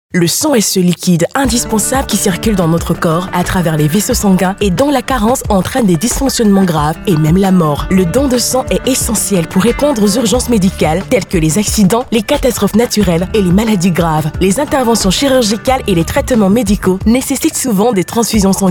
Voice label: spot radio